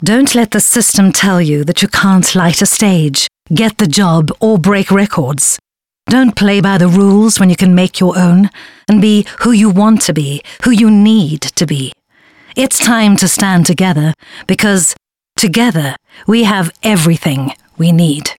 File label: English Conversational